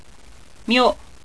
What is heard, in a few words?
"myo", Japanese